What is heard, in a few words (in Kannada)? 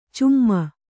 "ಚಿಮ್ಮಿ", Kannada